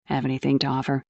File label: fem